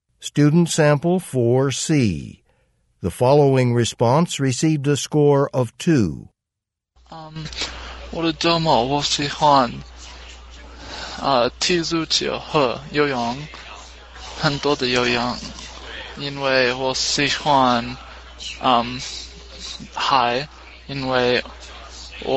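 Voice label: chinese conversation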